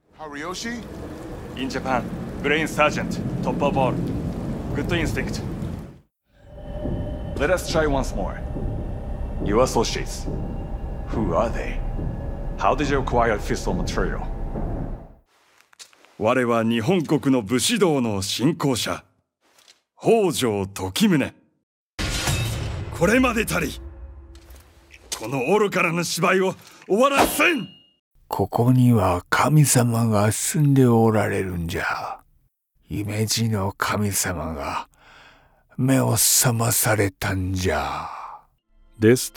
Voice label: videogame samurai